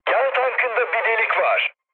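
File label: Turkish damaged oil, mood med, speech; War Thunder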